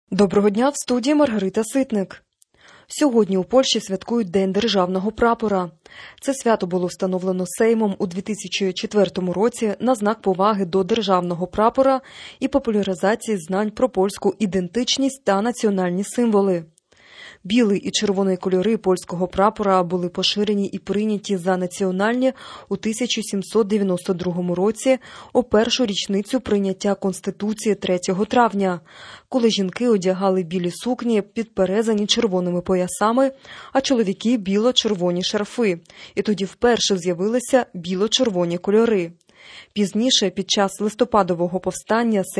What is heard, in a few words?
Ukrainian Morning News